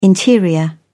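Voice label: "interior", English, British English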